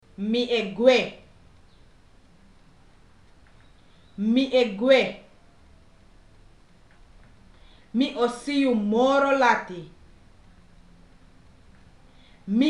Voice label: with pauses, Sranan; PeaceCorps

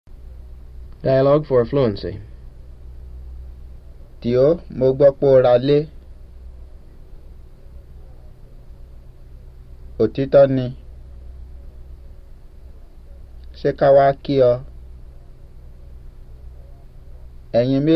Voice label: Yoruba; FSI; Basic

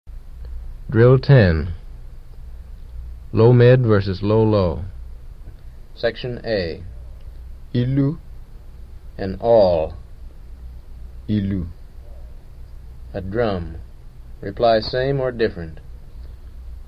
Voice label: Yoruba; FSI; Basic

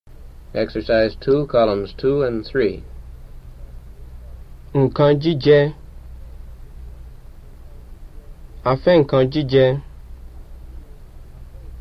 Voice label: Yoruba; FSI; Basic

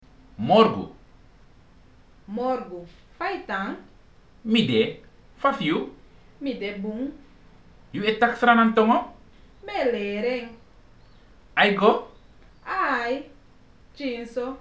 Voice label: dialogue, Sranan; PeaceCorps

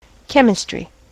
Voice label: "chemistry", En-us

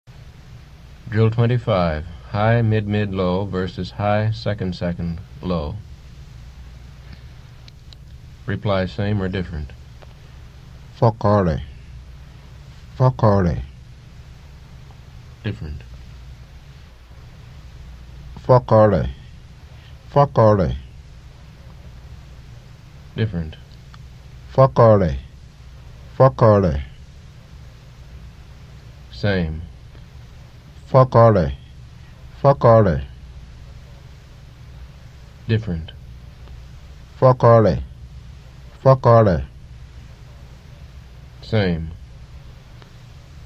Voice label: Yoruba; FSI; Basic

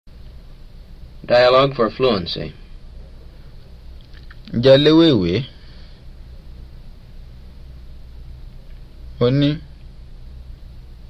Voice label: Yoruba; FSI; Basic

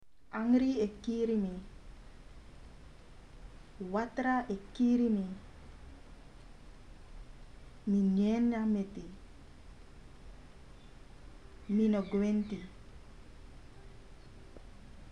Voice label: phrases, Sranan; PeaceCorps

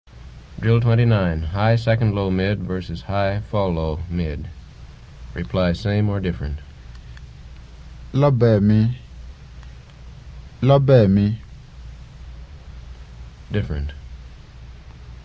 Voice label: Yoruba; FSI; Basic

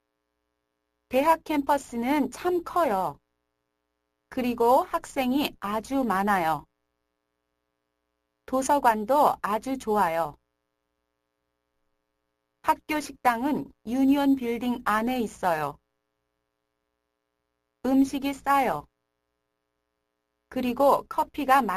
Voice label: Korean Narration; DLI; Lesson 2 - Narration

